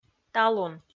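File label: "талун", Komi, female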